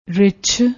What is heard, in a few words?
"ricch", Punjabi